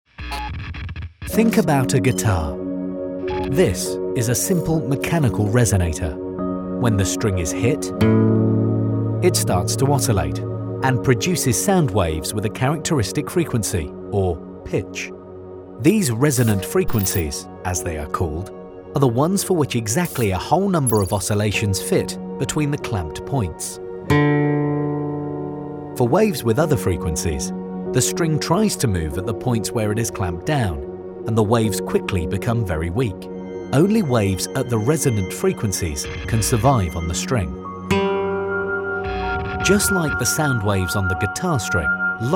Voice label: Narration; Polaritons